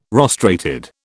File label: "rostrated", British